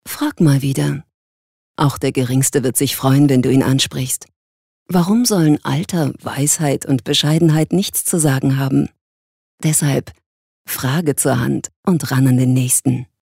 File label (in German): Werbung Sanft, voices